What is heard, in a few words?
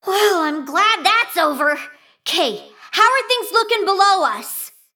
Female Mecha Gnome